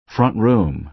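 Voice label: "front room", American English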